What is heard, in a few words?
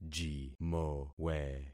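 "jimowe", concatenated syllables; Affixing experiment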